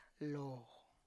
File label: "loo", face, tlacochahuaya